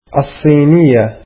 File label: "Chinese", arabic